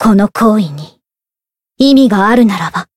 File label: Voice win jp; eva